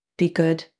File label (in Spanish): Voice Acting es F0